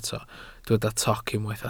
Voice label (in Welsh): "toc", Welsh